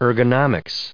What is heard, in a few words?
"ergonomics", us